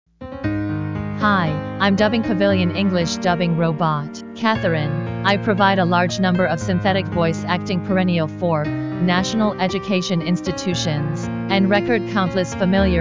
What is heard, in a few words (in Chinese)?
女 自我介绍